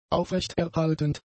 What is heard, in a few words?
"aufrechterhaltend", German; adjectives